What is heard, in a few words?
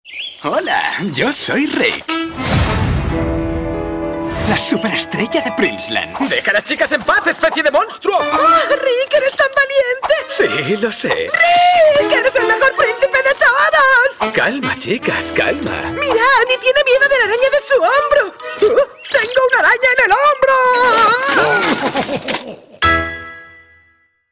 male spanish cartoon comercial; ideasonora